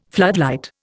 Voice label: "floodlight", Indian

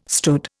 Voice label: "stood", Indian

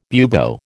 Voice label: "bubo", American

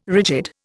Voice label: "rigid", Indian

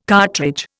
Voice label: "cartridge", Indian

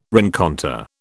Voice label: "rencontre", British

